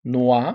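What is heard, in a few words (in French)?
"noix", French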